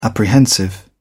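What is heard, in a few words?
"apprehensive", British English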